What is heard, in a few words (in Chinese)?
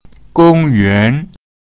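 "gong yuan", Mandarin Chinese pinyin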